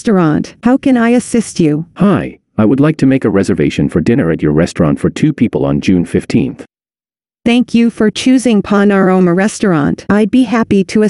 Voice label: telephone